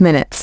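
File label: "minutes", English; iOS 4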